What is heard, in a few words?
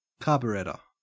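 "carburettor" English, Australian accent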